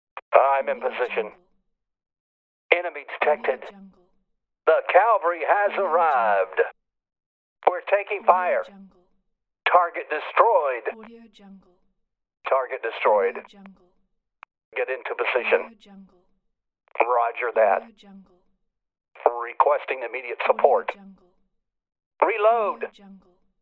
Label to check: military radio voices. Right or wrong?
Right